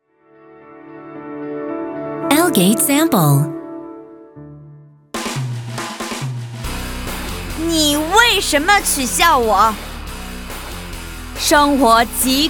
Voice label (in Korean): 중국 여 액팅